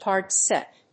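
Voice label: "hard-set", English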